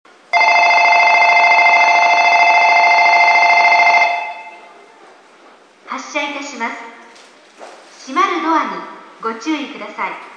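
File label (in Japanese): 発車, 駅放送, 音量は大きめです; 音質が悪め; 一時期は音が割れたスピーカーがありました。; yachiyo-chuo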